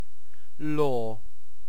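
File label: "law", British English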